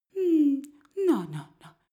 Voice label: female comfort; koerpergeraeusche

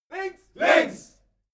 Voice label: military shout german left

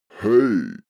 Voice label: male hey low

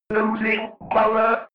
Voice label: robot voice loosing power fx

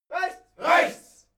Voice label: military shout german right

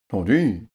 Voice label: male talk impressed

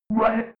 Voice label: robot voice one fx

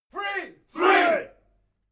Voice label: military shout english "three"

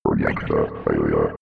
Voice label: robot voice reactor failure fx

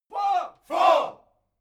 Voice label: military shout english four

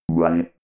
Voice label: robot voice one

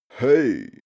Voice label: male "hey" laid-back

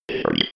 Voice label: robot voice three

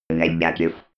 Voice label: robot voice negative

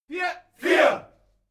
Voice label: military shout german "four"

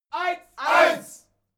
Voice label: military shout german one

